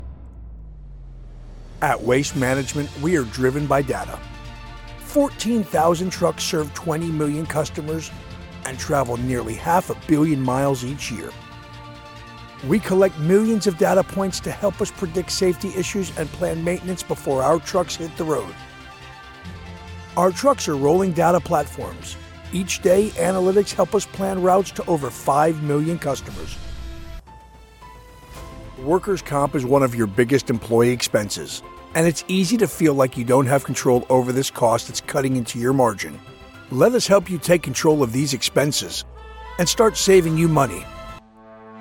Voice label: Corporate Presentation

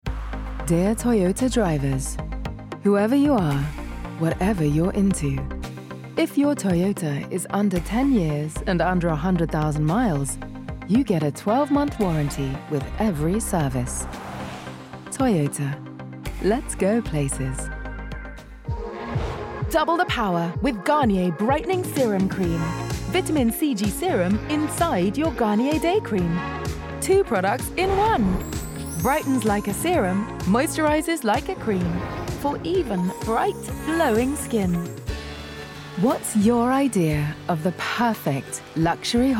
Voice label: Commercials Reel; Original Toyota

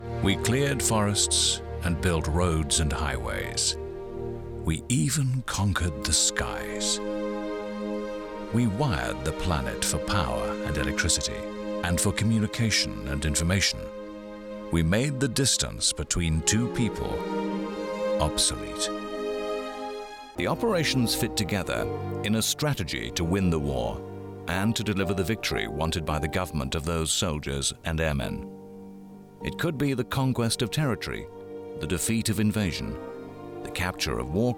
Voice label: Narration

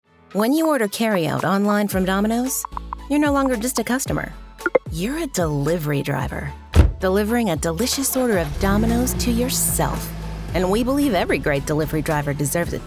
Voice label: Wry n Dry Commercial

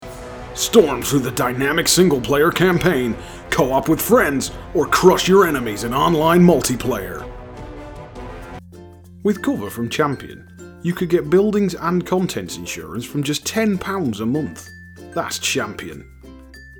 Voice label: commercial voice reel

